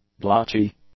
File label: "blotchy", English; adjectives